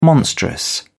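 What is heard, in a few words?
"monstrous", British English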